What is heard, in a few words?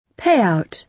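"payout", British English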